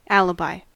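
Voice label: "alibi" US English; pronunciations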